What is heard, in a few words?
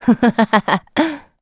Vox Happy; kingsraid; en